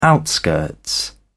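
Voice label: "outskirts", British English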